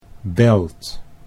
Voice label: "belt", Dutch